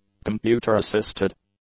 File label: "computer-assisted", English; adjectives